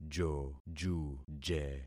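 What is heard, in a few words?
"jojuje", concatenated syllables; Affixing experiment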